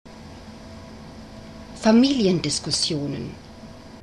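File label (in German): "familiendiskussionen", German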